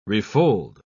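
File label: "refold", English, uk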